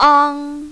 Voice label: "ang1", mandarin, female

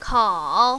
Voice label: "kao3", mandarin, female

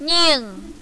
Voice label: "ning4", mandarin, female

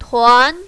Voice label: "tuan2", mandarin, female

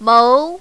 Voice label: "mou2", mandarin, female

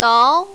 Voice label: "dao2", mandarin, female

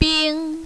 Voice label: "bing1", mandarin, female; 冰

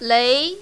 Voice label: "lei2", mandarin, female

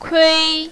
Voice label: "kui1", mandarin, female

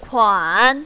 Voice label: "kuan3", mandarin, female

